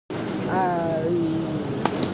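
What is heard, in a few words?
"a1yi2", Mandarin Chinese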